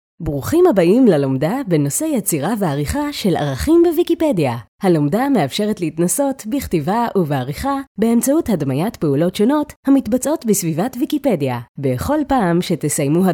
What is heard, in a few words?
hebrew e-learning